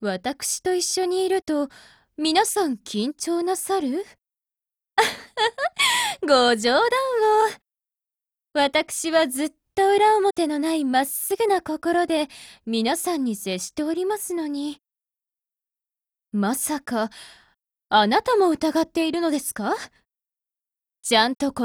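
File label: DIALOGUE Japanese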